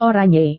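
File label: "oranye", Indonesian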